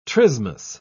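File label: "trismus", British English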